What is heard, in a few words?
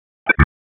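Raleigh Police North District Tactical, p25; wake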